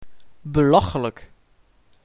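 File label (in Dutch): "Belachelijk", Dutch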